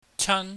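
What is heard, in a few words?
"chan", Cantonese, high falling tone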